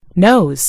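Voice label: "nose", British English; gcompris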